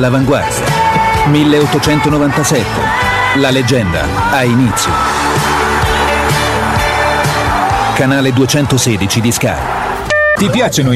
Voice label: italian voice over male commercial; Italian Dubbing; BiberSA Production